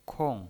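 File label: "kong", fourth tone, pinyin voice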